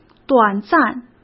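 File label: "短暂", Mandarin Chinese; hsk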